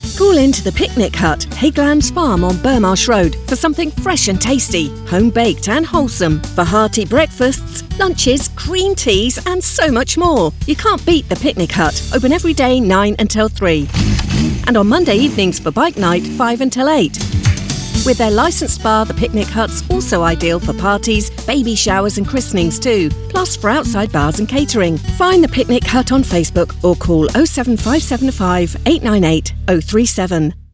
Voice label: Radio Commercials, Upbeat Promotion, Female British Voiceover; The Picnic Hut call into